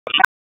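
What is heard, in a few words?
EMS Dispatch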